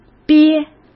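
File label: "憋", Mandarin Chinese; chinese-flashcards; hsk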